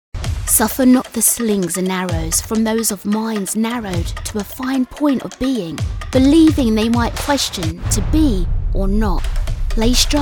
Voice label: Commercial